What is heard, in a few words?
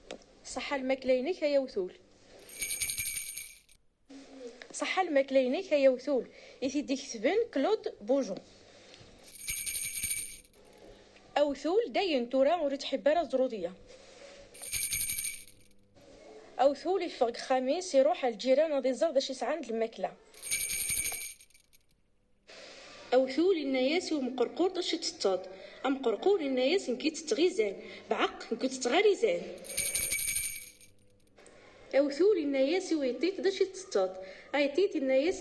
kabyle; bon appetit monsieur lapin